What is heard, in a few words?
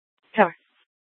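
Scottsdale PD North Dispatch; Nth Dispatch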